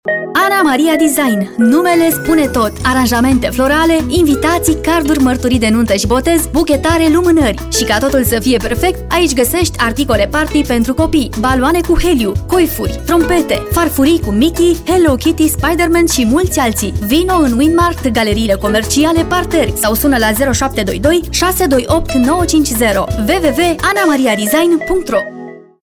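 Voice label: romanian female commercial; voice demos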